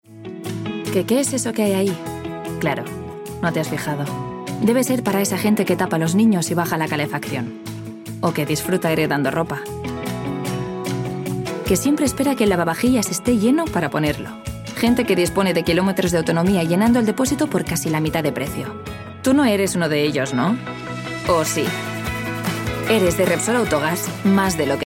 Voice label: female spanish gas comercial; ideasonora